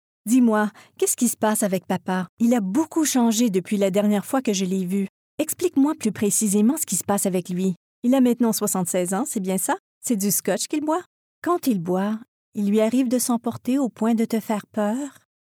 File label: voice acting